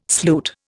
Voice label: "sloot", Indian